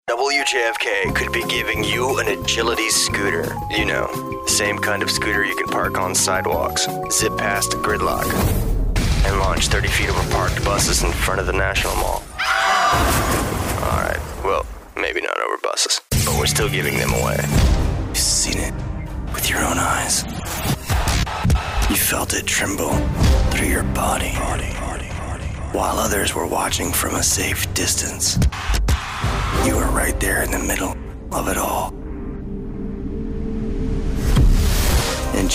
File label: radio, radio imaging